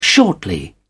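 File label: "shortly", British English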